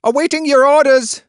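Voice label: Voices Units Allied Machinegunner select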